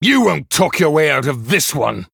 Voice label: Male Human Emote Response